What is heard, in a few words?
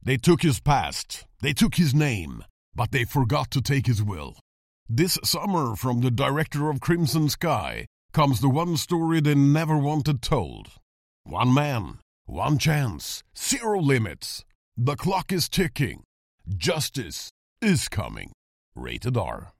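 promo english, Swedish, male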